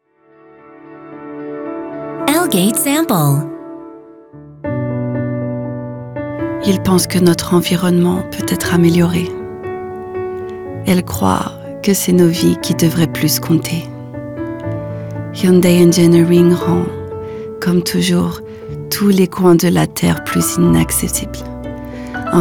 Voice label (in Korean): 프랑스 여 차분한톤